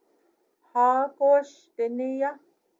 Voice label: Where are you going, Navajo